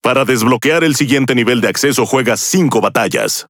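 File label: Spanish Speech; Access Level Unlock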